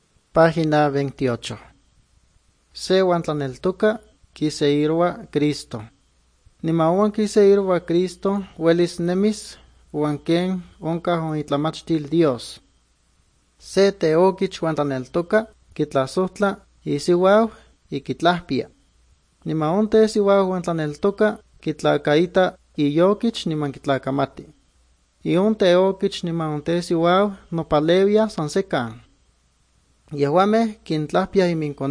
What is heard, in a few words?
good news, nahuatl guerrero chilapa